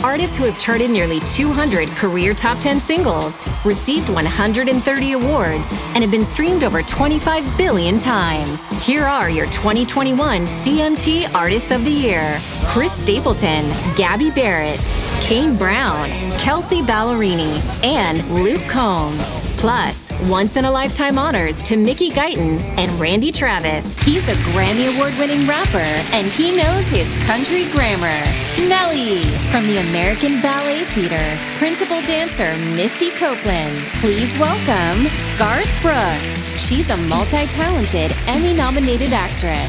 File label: women other live announcing